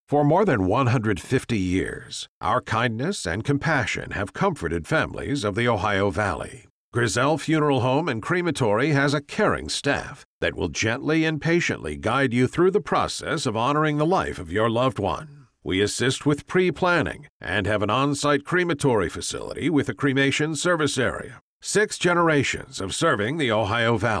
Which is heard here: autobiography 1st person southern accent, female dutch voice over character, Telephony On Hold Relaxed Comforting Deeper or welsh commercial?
Telephony On Hold Relaxed Comforting Deeper